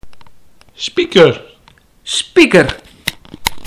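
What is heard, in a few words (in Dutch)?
"Spieker", Stellingwerfs; stellingwerver woorden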